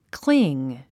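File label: "cling", English; 発音 klíŋ クリン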